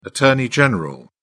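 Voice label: "attorney general", English, British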